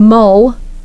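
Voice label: "mull", English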